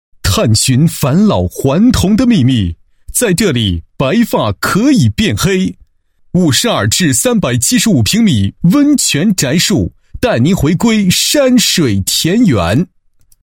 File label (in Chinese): 男 广告 宣传广告; 温泉别墅中国院子宣传广告